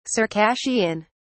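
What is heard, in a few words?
"circassian", British English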